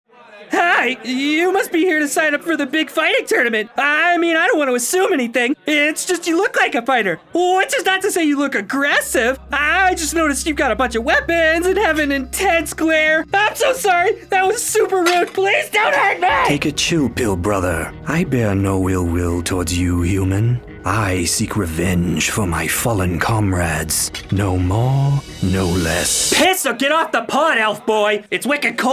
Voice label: Character